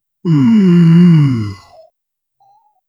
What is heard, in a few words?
a very soft subdued male